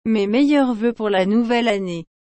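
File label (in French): "Mes meilleurs vœux pour la nouvelle année", French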